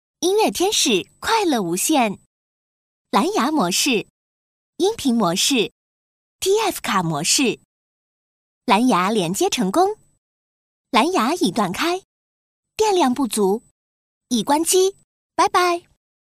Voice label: 女, 语音IC, 操作提示

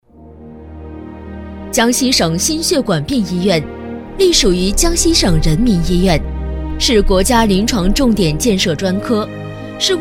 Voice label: 女, 企业宣传, 心血管病医院, 大气沉稳; 沉稳大气; 江西省心血管病医院

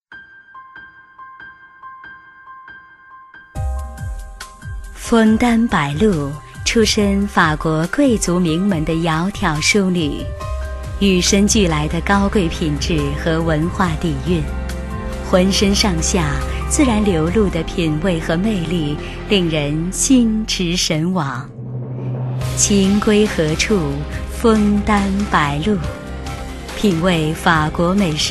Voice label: 女 广告配音 温馨优雅

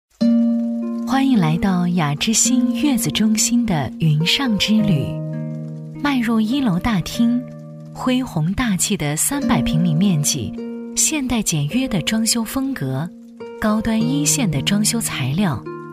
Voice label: 女 企业宣传片 月子中心 温馨柔和; 温和抒情; 雅芝馨月子中心

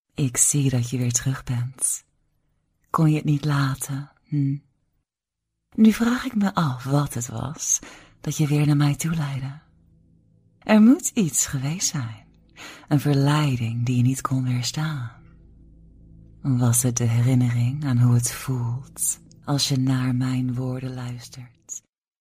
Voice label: 荷兰语女声

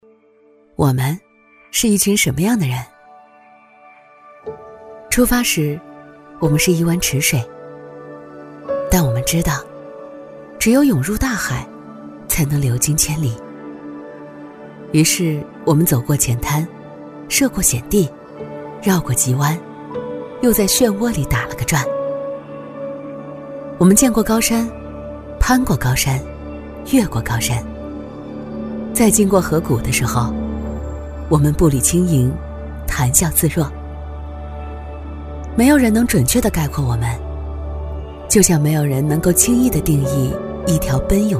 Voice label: 女-走心自然讲述; 三只松鼠2023

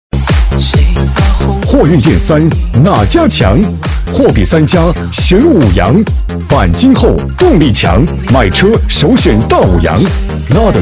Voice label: 激情促销男; 货运买车选五羊

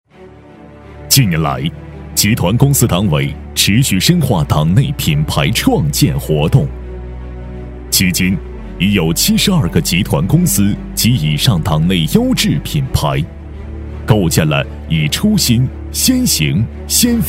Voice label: 男 党建专题; 党建专题配音类型; 企业专题党建文化建设; 男W3-党建专题-企业专题党建文化建设

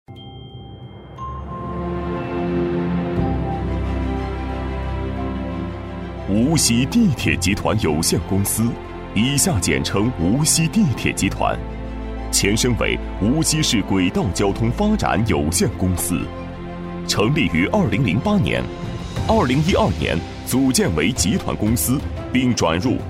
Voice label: 男 企业宣传片 大气有力; 无锡地铁

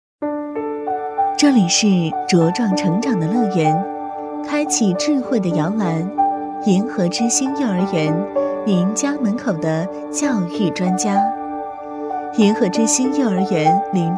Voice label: 女 宣传片 温馨甜美; 温柔知性; 银河之星幼儿园

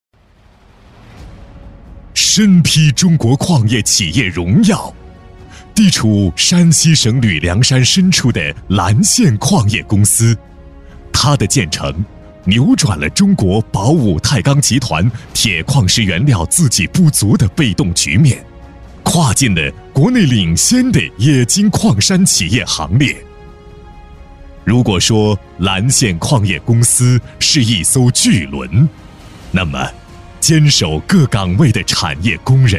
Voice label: 男, 企业宣传片, 大气激情; 高端大气; 大气浑厚; 岚县矿业公司

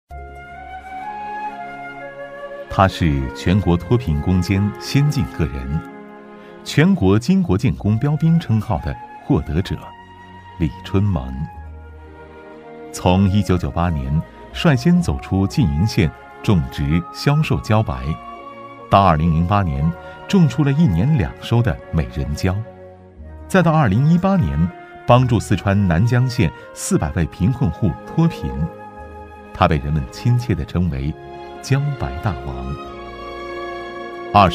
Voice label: 男 人物专题 低沉旁白讲解

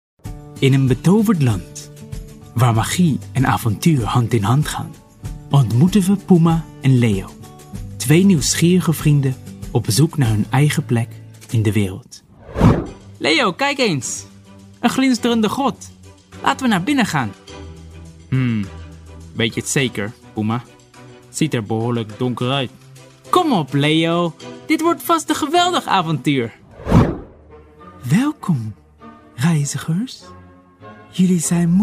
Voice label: 荷兰语男声

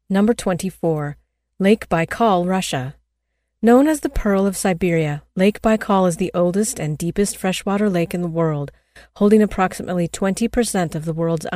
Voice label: 美式女声

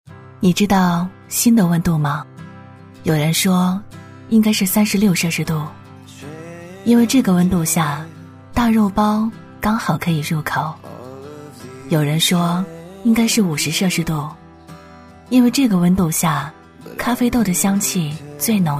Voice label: 女-走心-温暖走心; 7-ELEVEN

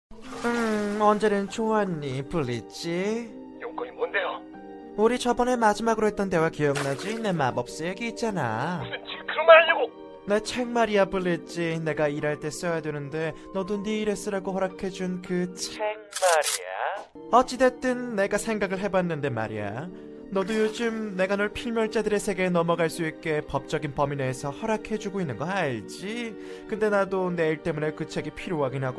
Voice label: 韩语男声

